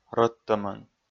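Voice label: "рӧдтӧмӧн", Komi, male; kpv audio dict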